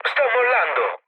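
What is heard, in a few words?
Italian bailout mood low, speech; War Thunder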